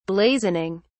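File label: "blazoning", British English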